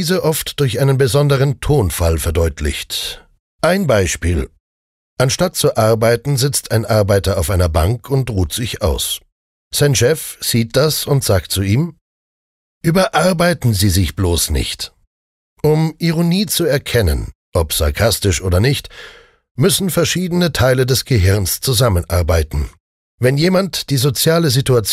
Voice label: Sarkasmus Neutral

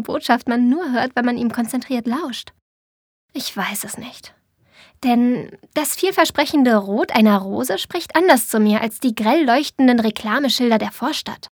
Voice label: Farbe Rot Freundlich

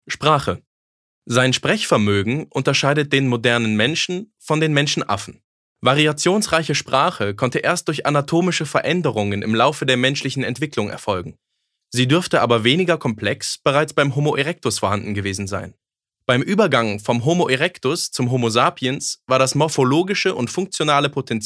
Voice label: Sprache Neutral

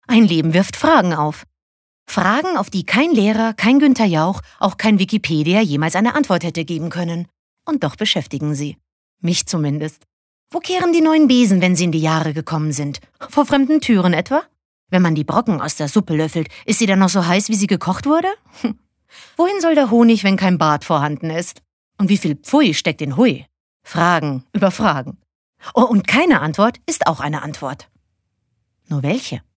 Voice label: Schauspiel Lebendig

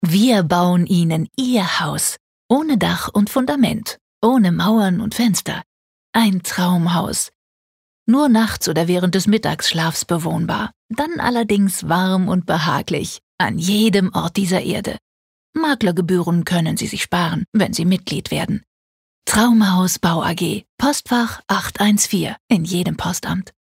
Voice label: Werbung, Sanft

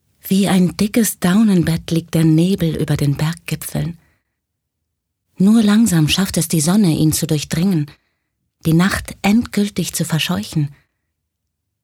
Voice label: Schauspiel Variabel

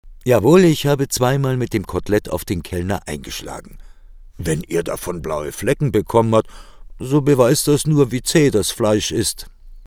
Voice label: Dynamisch

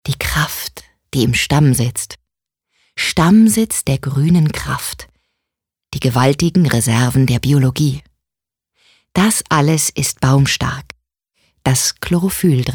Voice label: Werbung Sanft

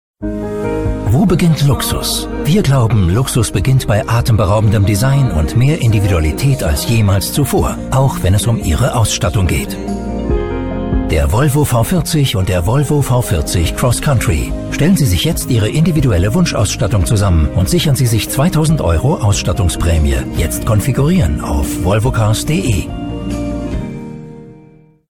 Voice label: W Fremdtake Freundlich

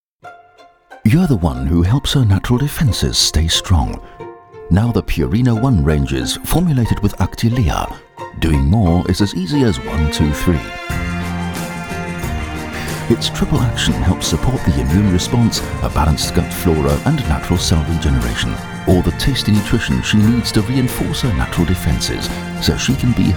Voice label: Eng UK Dynamisch

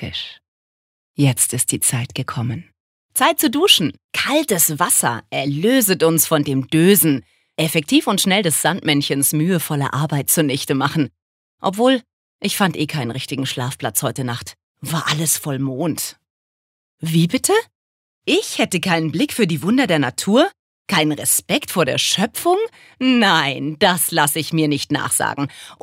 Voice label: Schauspiel Variabel

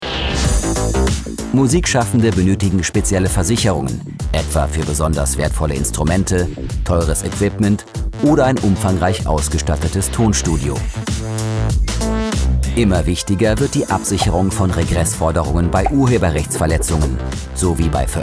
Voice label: Neutral; Gema

